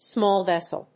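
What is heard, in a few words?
"Small Vessel", English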